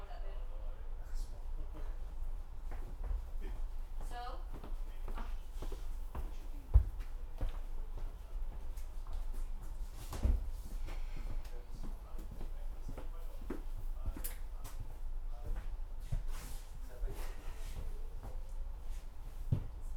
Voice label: Harass French